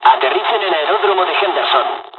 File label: landing, dialogs spanish; War Thunder